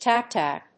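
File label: "tap-tap", English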